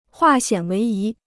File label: "化险为夷", Chinese